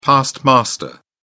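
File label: "past master", British English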